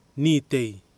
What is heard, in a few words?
"nitei", Nafe; fishing spear, three pronged